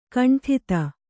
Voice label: "ಖಂಡಿತ", Kannada